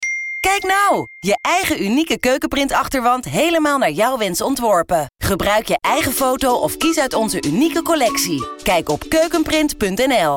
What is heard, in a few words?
radio commercial